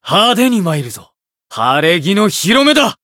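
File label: chant, Japanese; shigure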